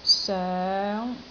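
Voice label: "soeng5", Cantonese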